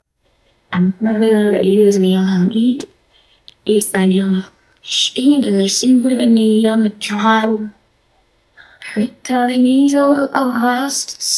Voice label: princess fairytale cinder, storytelling